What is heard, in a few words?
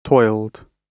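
"toiled", British English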